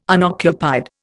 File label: "unoccupied", Indian